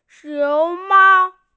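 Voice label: "xiong2mao1", Mandarin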